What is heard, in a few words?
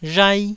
"rhai1", Taiwanese